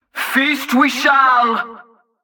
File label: Female Mid Combat Line; NPC; Heist Cultist Mid Combat